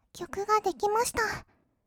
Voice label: 曲が出来ました, kawaii voice; ASMR; 色々なワンショット

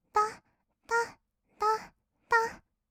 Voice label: だっだっ, kawaii voice; ASMR; 合いの手; 1200 kawaii voice!!

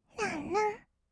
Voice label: "らんらんっ", kawaii voice; ASMR; 色々なワンショット

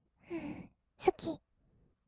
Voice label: "すきっ", kawaii voice; 1200 kawaii voice!!; 色々なワンショット

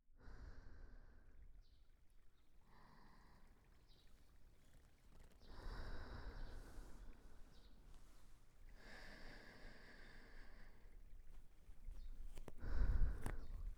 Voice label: 洗体マッサージ, ASMR

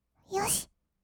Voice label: "よし", kawaii voice; 1200 kawaii voice!!; 色々なワンショット

